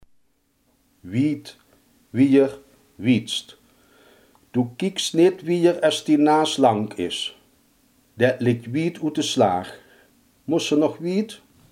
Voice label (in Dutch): "ver", limburgse